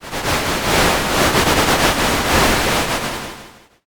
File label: whisper